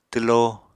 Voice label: "twlo", Apatani